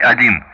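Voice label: Speech Russian; DCS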